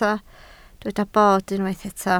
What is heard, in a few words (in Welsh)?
"bord", Welsh